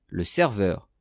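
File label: "le serveur", French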